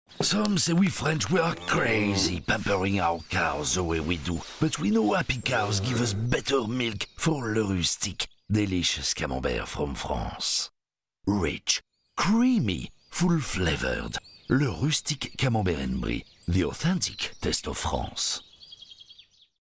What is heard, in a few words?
spot TV en Anglais; Le Rustique Camembert and Brie